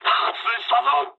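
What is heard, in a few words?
bomb failure mood med, speech japanese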